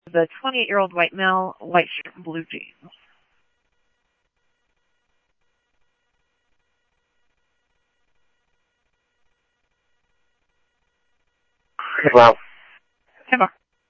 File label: Mesa Simulcast police; MESA PD 1 Fiesta; MesaPoliceDep; jumper